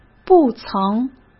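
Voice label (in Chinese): "不曾", Mandarin Chinese; hsk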